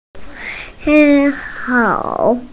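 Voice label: "sī kǎo", Mandarin Chinese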